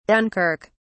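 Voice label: "dunkirk", British English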